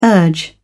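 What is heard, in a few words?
"urge", British English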